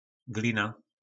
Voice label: "Glina", nářečí Po našimu; Hlína

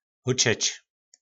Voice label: "Hóčeč", nářečí Po našimu; Hučet

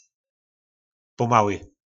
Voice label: "Pómały", nářečí Po našimu; Pomalý

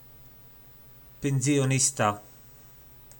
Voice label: "Pyndzyjonista", nářečí Po našimu; Důchodce; Je už na pyndzyji.; Je už v důchodu.

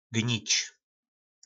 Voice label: "Gnic", nářečí Po našimu; Hnít

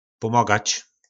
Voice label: "Pómogač", nářečí Po našimu; Pomáhat

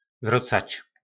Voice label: "Vrócač", nářečí Po našimu; Vracet

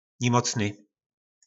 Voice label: "Nimocny", nářečí Po našimu; Nemocný

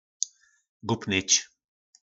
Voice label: "Gupnyc", nářečí Po našimu; Blbnout

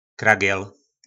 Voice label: "Kragěl", Slovník nářečí; Límec

